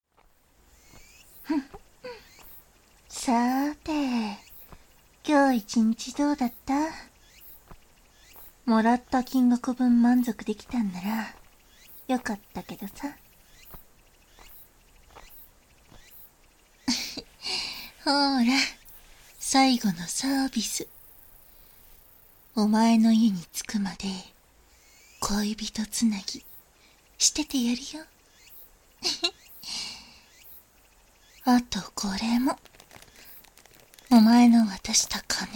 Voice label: あいかに買われる（環境音あり） 左; 左にあいか

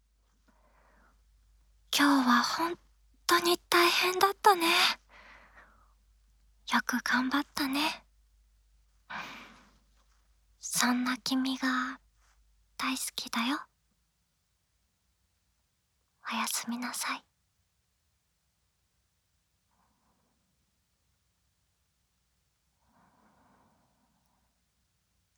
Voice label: おやすみボイス, ASMR; 冬聖のおやすみボイス２～いっぱい頑張ったキミへ～

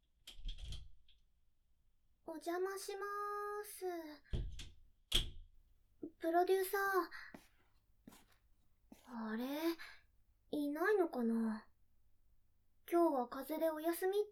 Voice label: 清楚なエルフの看病耳かきボイス 料理編

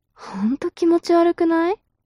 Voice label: mob女子台詞

